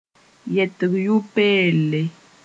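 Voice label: "yɛtg yʋ-peelle", Mossi; infinitive form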